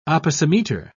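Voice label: "opisometer", British English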